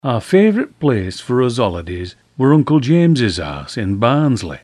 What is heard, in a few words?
yorkshire